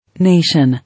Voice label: "Nation", English